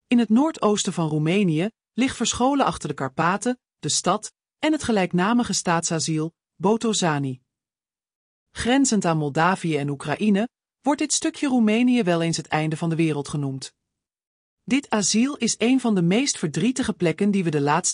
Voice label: Voiceover